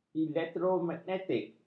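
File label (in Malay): "elektromagnetik", Malay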